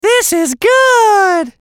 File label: VOICE COMICAL; Comedy; Radio; This Is Good